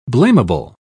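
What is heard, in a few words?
"blameable", British English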